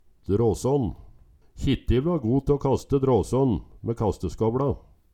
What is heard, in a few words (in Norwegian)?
"drasan", Numedalsmål; Ubøyeleg.